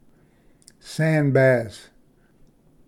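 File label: "sand bass", English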